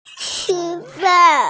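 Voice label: "chi1fan4", Mandarin Chinese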